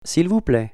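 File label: "s'il vous plaît", French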